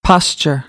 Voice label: "posture", American English; ɚ - əɹ

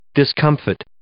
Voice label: "discomfit", English

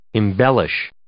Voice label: "embellish", English

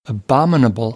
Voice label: "abominable", American English; ɑː - ɒ

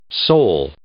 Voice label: "sole", English

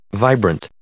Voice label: "vibrant", English; adjective

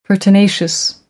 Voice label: "pertinacious", American English; Vocabulary

